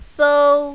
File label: "sou1", mandarin, female